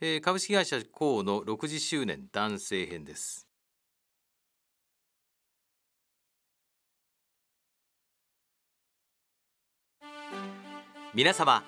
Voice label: male